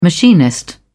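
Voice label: "machinist", British English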